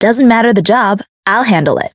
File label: Voice chant; kikyou